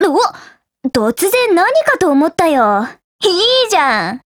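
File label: DIALOGUE, Japanese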